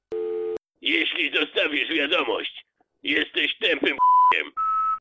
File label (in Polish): poczta powitanie